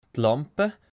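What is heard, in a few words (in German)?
"d Lampe", Swiss German; Kapitel 2; Alphabet Uebung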